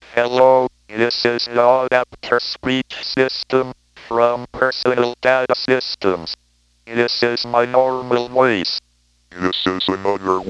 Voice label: Audapter, synthesizers; Personal Data Systems; vintage-access

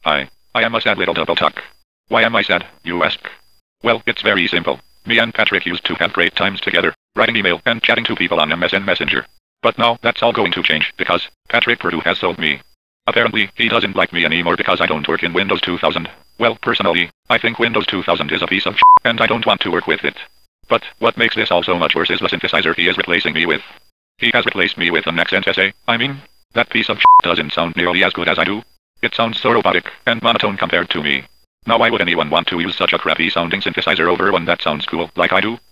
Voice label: DoubleTalk Rant, synthesizers

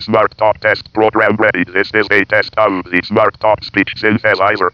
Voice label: smartalk2, synthesizers; Automated Functions; vintage-access

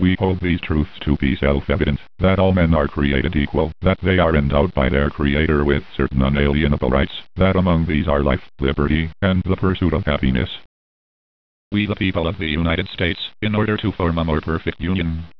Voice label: DoubleTalk, synthesizers; RC Systems; vintage-access

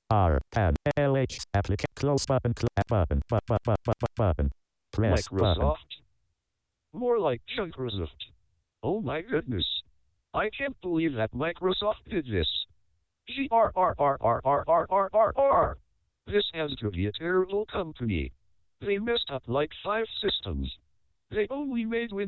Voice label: lpc compression

